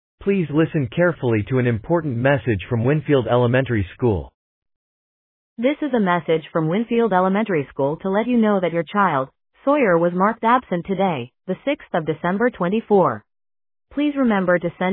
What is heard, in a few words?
Voicemail